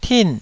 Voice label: "tin4", taiwanese Syllables

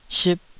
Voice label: "sip2", Taiwanese syllable

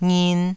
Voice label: "ngin2", taiwanese syllables

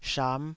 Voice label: "sham5", taiwanese Syllables

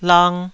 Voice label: "lang2", Taiwanese syllable

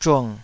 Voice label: "zhung3", Taiwanese

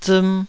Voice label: "ziim2", Taiwanese syllable

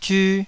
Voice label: "zyu2", taiwanese

